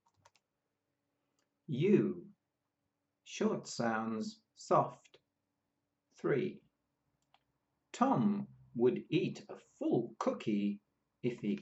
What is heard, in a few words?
U short sounds soft, pronunciation of australian english